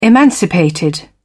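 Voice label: "emancipated", British English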